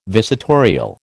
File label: "visitorial", American